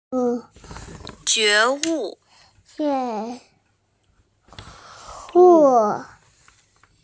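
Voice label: "jue2wu4", Mandarin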